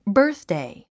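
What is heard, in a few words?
"birthday", English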